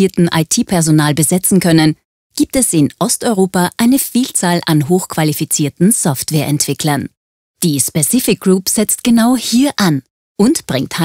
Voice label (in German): Sprecherdemo Erklaervideo IT Fachkr